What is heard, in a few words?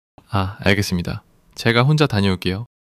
neutral